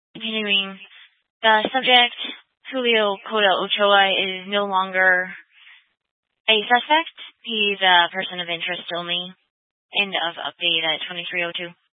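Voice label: Sheriff Dispatch, Scanner audio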